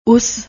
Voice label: "uss", Punjabi; ਉਸ

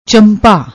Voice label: "champa", Punjabi; ਚੰਪਾ

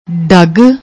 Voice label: "dag", Punjabi

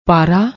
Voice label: "para", Punjabi

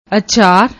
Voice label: "achaar", Punjabi

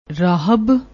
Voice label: "rahabb", Punjabi

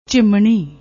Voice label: "jhinmnni", Punjabi

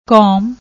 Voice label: "kom", Punjabi

